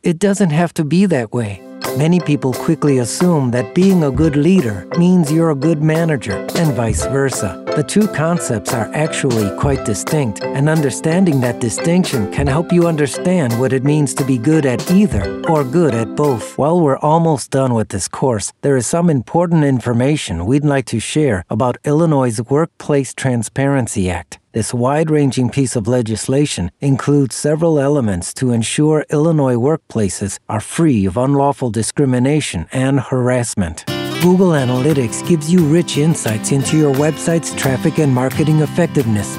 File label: corporate narration